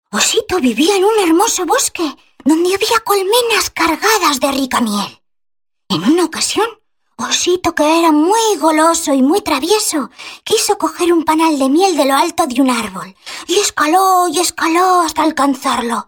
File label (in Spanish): infantiles